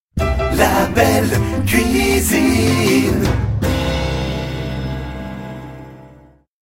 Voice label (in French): radio pub spot